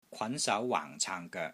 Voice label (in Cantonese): "KWAN2 SAU2 WAANG4 CAANG1 GOEK3", Wing Chun; Wing Chun Elements Glossary